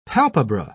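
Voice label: "palpebra", British English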